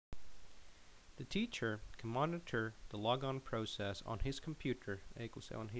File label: Narration